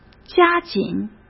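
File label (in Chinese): "加紧", Mandarin Chinese; chinese-flashcards; hsk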